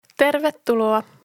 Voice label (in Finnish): "Tervetuloa", Frasboken